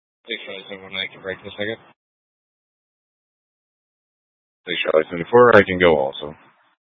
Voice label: Dispatch, scanner; TOPAZ Rgnl Wireless Co-op (TRWC); Mesa PD Dpt; 4 Sprstn Dispatch

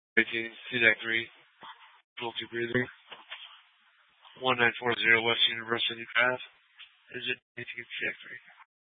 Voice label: FD Dpts VHF 156.240 Patch

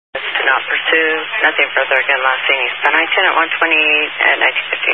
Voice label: Highway Patrol, Phoenix Metro West Area, scanner

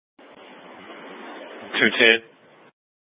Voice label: Light Rail Ops, scanner

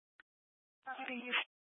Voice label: Fire Departments Mesa Simulcast; TOPAZ

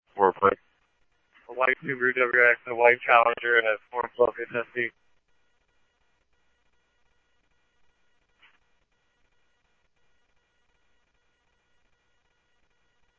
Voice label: Police, scanner; H-Scottsdale; SCTSDLE PD - PD2; ScottsdalePolice

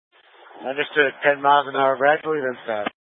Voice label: Light Rail Emergency, scanner

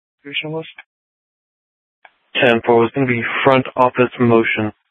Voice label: Sheriff, Maricopa County P25; Maricopa County (P25) - Thompson Peak; Shrf Lakes

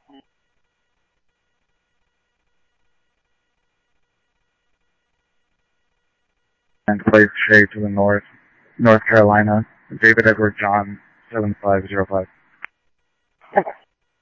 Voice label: Police, scanner; ScottsdalePolice; H-Scottsdale; SCTSDLE PD - PD2

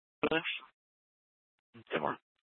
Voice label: Police Dispatch, scanner; Channel 1

